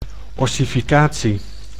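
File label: "ossificatie", Dutch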